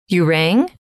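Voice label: you rang, female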